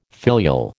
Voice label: "filial", American